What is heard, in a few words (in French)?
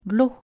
"l'eau", French